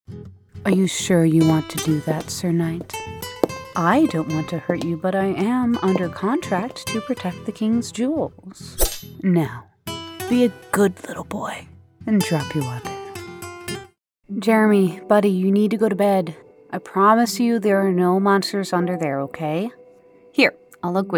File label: Character